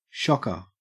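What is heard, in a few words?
"shocker", Australian English; pronunciations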